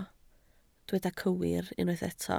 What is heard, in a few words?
"cywir", Welsh